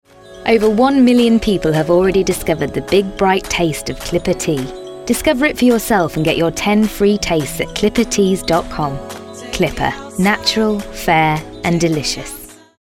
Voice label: TEA COMMERCIAL; CLIPPER